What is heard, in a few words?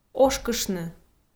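"ошкыштны", Komi-Zyrian, female; audio dict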